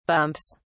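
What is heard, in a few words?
"bumps", British English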